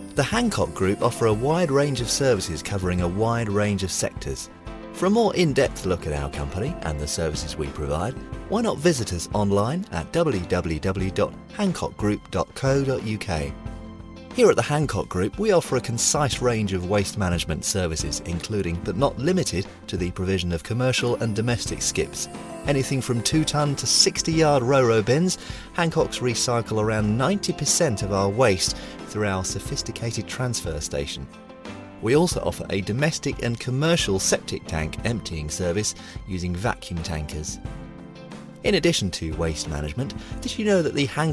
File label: on hold voice over sample